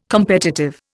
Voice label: "competitive", Indian